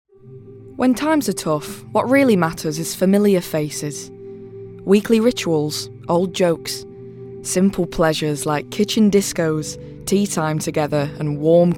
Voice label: COMMERCIAL VOICE REEL, Teens-30s. Female. Derbyshire.